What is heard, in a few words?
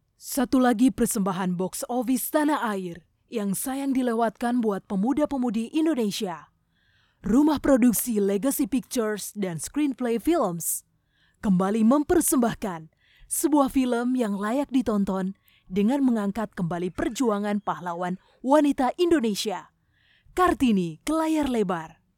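MOVIE TRAILER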